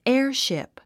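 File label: "airship", English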